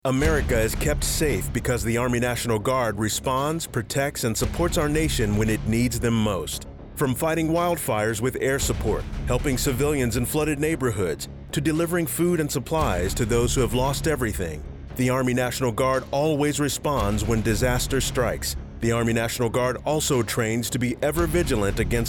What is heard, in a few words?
Radio English; MA Guard